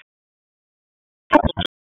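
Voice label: Police District Tactical, p25; Raleigh; Northeast; wake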